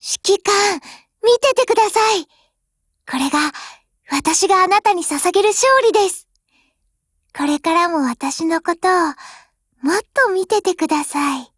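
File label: WIN Japanese, Voice; GF Alarm; 9A91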